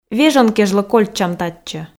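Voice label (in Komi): "вежон кежлӧ кольччам татчӧ", Komi, female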